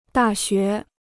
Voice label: "大学", Chinese

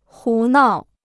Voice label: "胡闹", Chinese

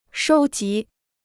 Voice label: "收集", Chinese

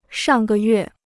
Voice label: "上个月", Chinese

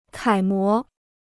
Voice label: "楷模", Chinese

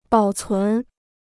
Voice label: "保存", Chinese

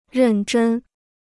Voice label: "认真", Chinese

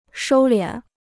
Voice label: "收敛", Chinese

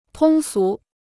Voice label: "通俗", Chinese

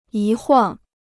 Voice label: "一晃", Chinese

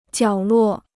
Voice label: "角落", Chinese

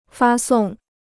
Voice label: "发送", Chinese

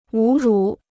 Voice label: "侮辱", Chinese

